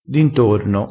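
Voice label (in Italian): "d intorno", vocabolario dialettale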